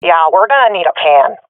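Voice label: request plan, swat female; vo; Counter Strike Model Pack; CS:GO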